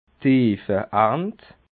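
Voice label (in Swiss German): "heefarnt", Alsatian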